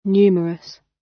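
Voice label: "numerous", British; Crime and punishment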